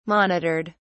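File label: "monitored", English, British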